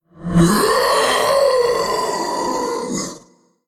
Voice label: Male Elemental Death